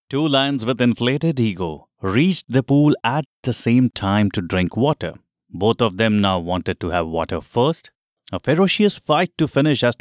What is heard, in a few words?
english indian commercial; Hindi Gujarati English (Indian); Canada